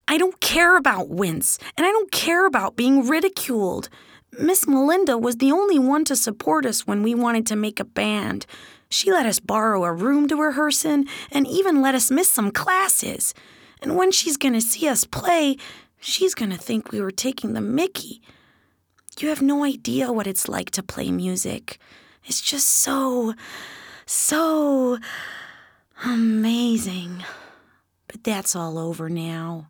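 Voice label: US English, Characters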